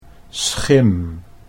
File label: "schim", Dutch